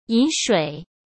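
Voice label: "饮水", Mandarin Chinese; chinese flashcards; hsk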